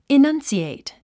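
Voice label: "enunciate", English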